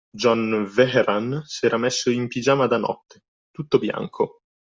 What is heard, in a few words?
italian